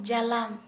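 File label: Santali, female, fishnet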